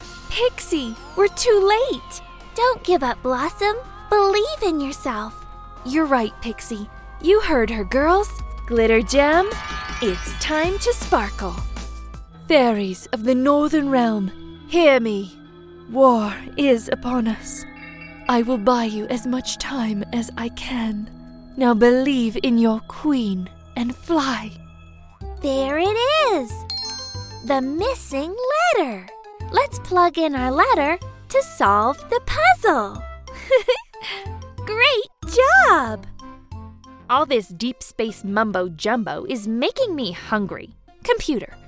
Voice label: VO -- Animation

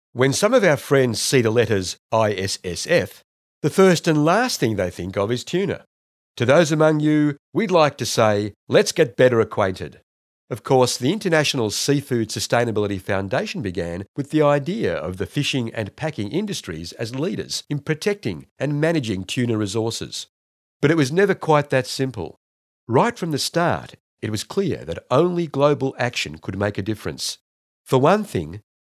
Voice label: business video

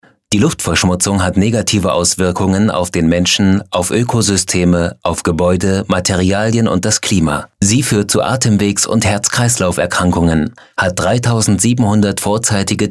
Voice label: ELearning Tutorial Luftverschmutzung

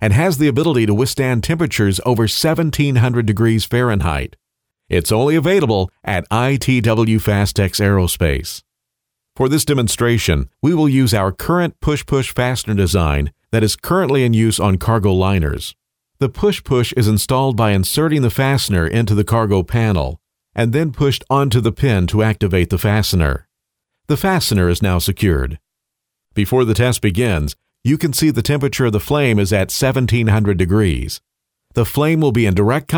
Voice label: Corporate Video

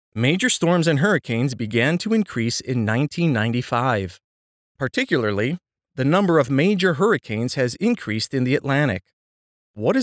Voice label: debate

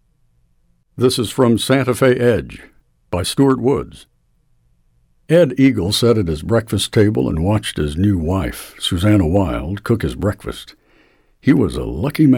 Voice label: Adult Male Audio Book; Santa Fe Edge

